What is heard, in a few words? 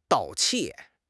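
"盗窃", Chinese; HSK6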